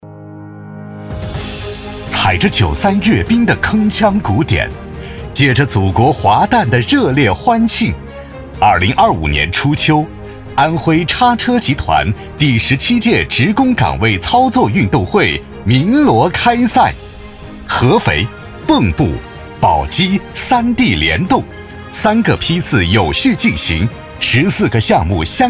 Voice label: 男 宣传片

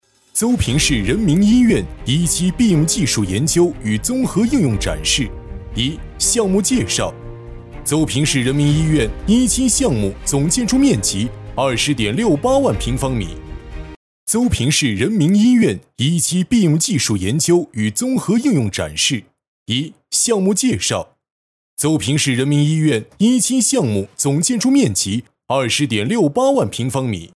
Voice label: 男, 宣传片

